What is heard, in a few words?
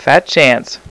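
"Fat", male; VOICE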